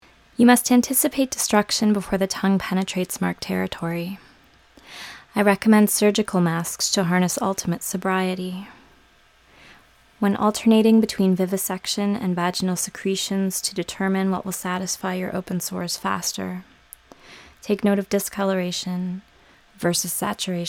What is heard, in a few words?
Internal Dialogue